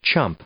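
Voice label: "chump", English; noun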